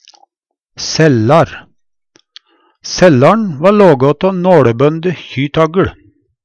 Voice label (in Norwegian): sellar, Numedalsmål; Sellar'n va lågå tå nåLebønde tagl.; Hushald, mat, drikke